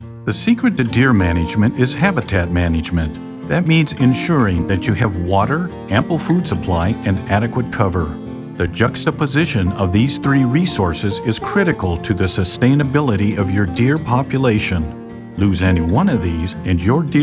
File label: E-Learning